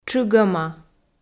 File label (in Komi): "тшыгӧма", Komi